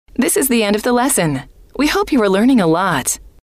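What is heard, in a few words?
this is the end of the lesson, female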